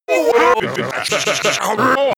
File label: glitch talk fx